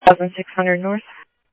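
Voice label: Police Department, scanner audio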